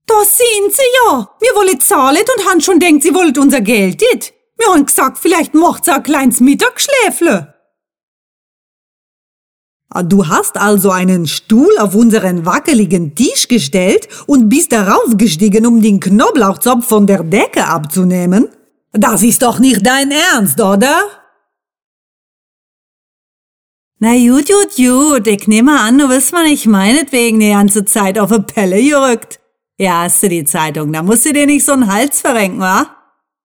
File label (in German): schwäbisch akzent polnisch berlinerisch